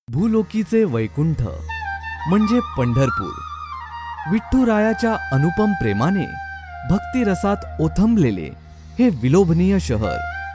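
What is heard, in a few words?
Marathi commercial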